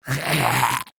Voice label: Pain, Grineer Ghoul; Warframe; Grineer Ghoul Expired; Battle Barks

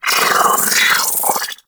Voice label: Vocal Attack; Warframe; Sentients; Battalyst

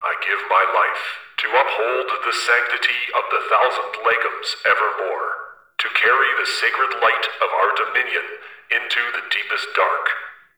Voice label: Announcer; Zariman; Mantra

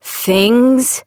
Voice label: Operator Voice; Warframe; Sacrifice Quest; Mimic

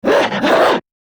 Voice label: Attack Grineer Manic; Battle Barks

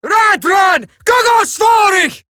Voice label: Cover Me Eximus Grineer Female; Warframe; Battle Barks

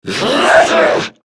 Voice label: Struggle, Battle Barks; Warframe; Grineer Manic

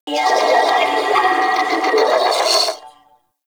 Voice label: Sentient Attack, Barks; Enemies; Warframe; Symbilyst

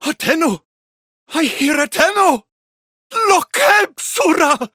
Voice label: Villagers Ostron Male Older en

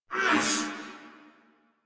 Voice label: Struggle Crewmen, Battle; Warframe; Corpus Crewmen; Crewman C

